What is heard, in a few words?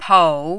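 "pou", Mandarin, third tone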